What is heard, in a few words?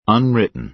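"unwritten", American English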